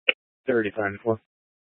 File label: Police Department Dispatch; Grand Junction